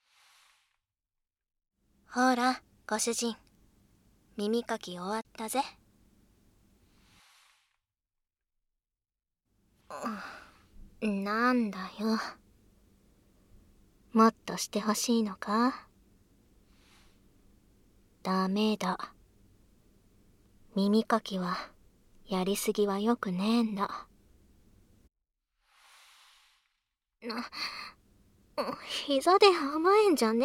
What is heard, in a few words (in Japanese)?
メイドさんと耳舐め（ノイズ除去なし）